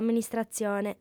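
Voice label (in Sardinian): "amministratzione", lingua sarda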